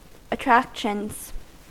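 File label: "attractions", American English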